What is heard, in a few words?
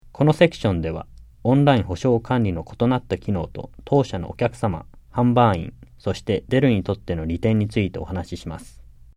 JAPANESE; delltranslations